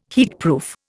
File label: "heatproof", Indian